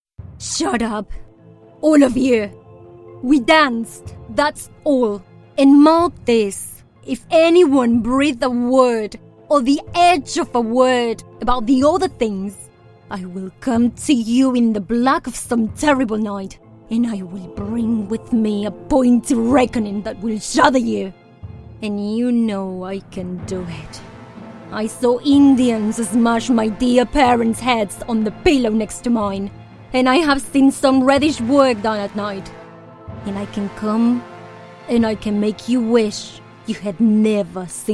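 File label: Spanish English, female, audiobook; accent of spain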